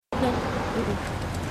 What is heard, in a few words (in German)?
Frau; Bosch